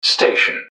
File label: "station", english man; subway announcers; MetrostroiAddon